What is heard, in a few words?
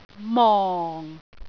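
"mong4", Cantonese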